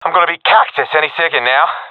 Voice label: scared, professional; vo; Counter Strike Model Pack; CS:GO